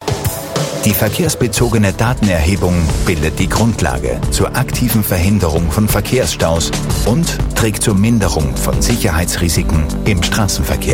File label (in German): Doku, D